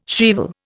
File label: "shrieval", Indian